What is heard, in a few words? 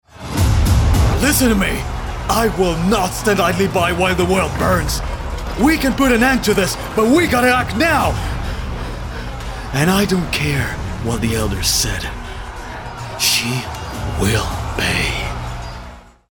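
Character Natural, English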